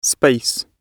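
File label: "space", British English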